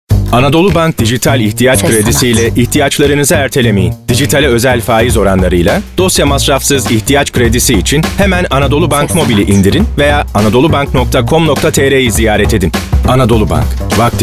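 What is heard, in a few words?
Turkish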